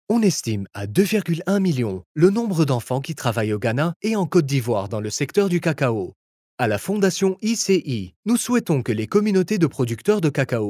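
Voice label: French, France, male, voice over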